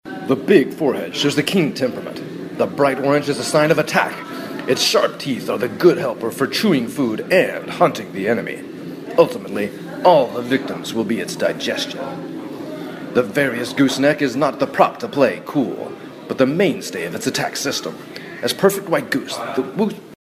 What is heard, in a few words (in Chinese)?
外籍男英 外语 美式英语 解说动物 激情